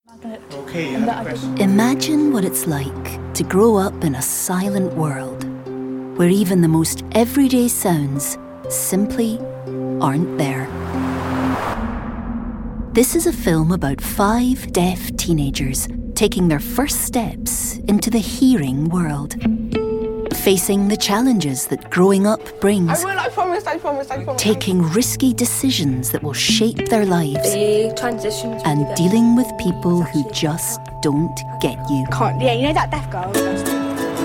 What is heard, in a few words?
Narration